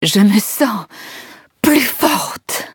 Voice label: levelup, fr; Cookie Run

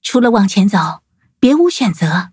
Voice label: levelup zh-hant; cookie run

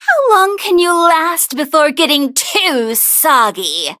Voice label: touch, en; cookie run

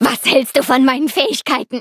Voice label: levelup, de

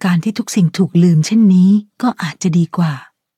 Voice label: level up, th; Cookie Run

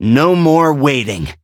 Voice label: levelup, en; Cookie Run

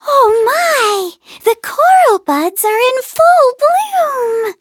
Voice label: touch, en; Cookie Run

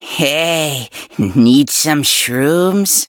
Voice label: battle en

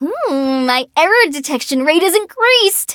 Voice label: levelup en; Cookie Run

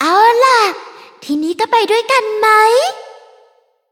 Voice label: level up, th; Cookie Run

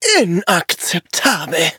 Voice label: hit, de

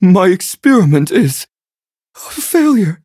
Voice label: defeat, en; cookie run

